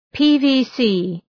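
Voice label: "PVC", English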